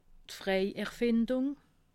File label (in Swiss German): "erfindung", alsace